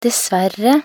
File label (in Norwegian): "dessverre", nb_NO